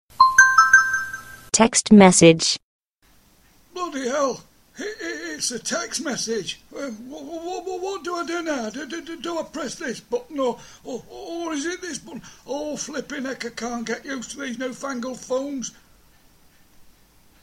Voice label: Old Man Text